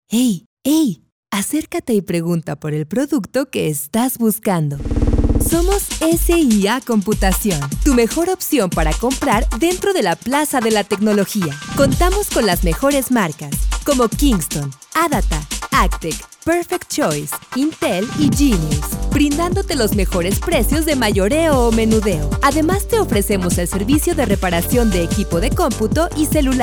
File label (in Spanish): perifoneo